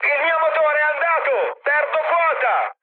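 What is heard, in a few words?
Italian damaged engine mood high, speech; War Thunder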